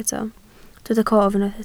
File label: "cof", Welsh